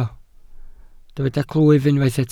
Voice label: "clwyf", Welsh